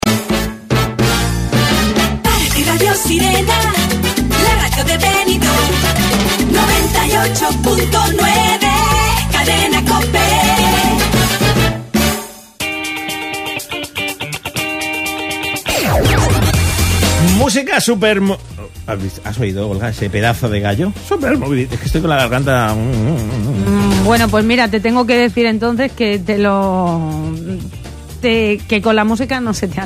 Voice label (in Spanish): entrevista radio; bolulla a la fresca; Radio Sirena COPE